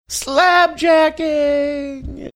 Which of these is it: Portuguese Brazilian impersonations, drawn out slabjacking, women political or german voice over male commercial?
drawn out slabjacking